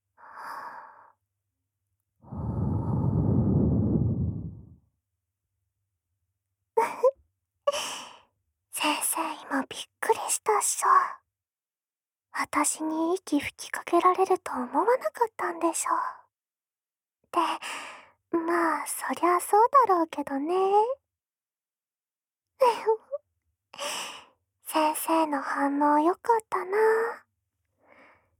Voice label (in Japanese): 耳に吐息がかかる距離で甘々おねだりの囁きと耳舐め